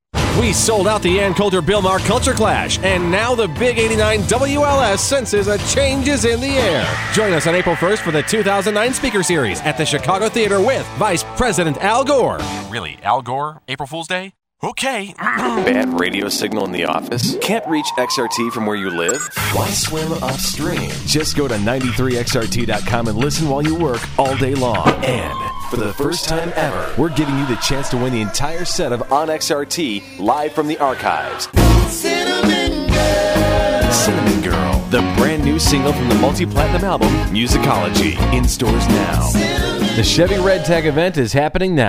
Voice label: creative commercial